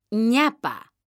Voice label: "ÑAPA", M; mekanta

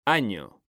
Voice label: "AÑO", H; mekanta

